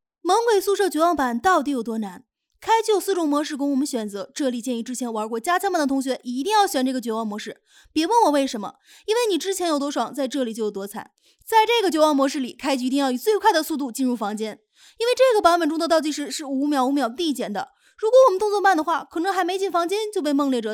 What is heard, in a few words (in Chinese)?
游戏女